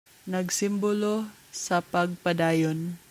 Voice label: Cebuano